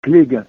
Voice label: book, russian